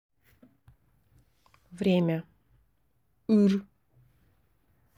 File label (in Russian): время нивхский; Ыр; транскрипция [ыр]